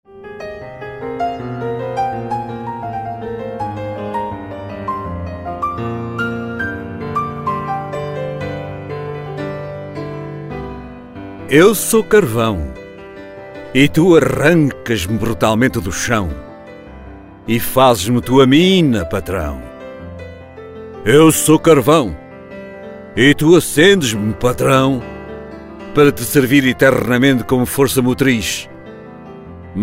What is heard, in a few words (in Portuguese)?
grito